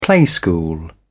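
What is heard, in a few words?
"playschool", British English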